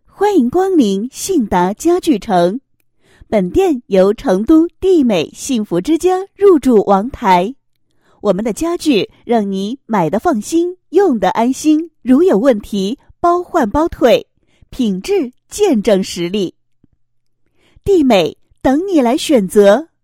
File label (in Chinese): 女78-欢迎词, 宣传介绍; 信达家具城宣传介绍